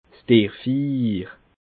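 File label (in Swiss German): "derrfiir", Alsatian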